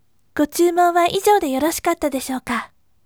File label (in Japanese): voice "gochumon ijoude yoroshii" yasashime